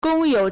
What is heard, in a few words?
"gong1 you3", Chinese